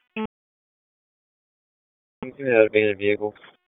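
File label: Raleigh Police Northeast District Dispatch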